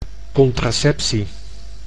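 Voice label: "contraceptie", Dutch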